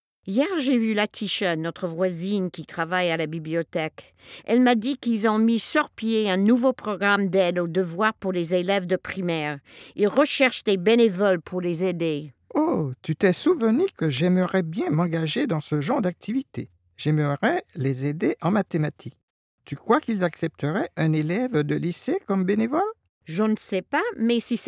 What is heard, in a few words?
Listening French Item